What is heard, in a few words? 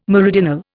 "meridional", Indian